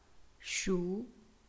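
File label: "shu3", Chinese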